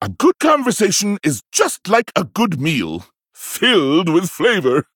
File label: Male Pandaren Flavor